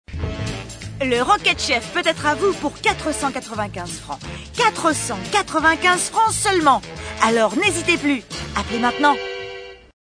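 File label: Hard Sale, Commercials, French; Rocket Chef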